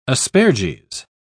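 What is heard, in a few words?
"asperges", English, British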